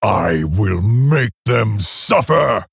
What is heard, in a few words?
Male Orc Picked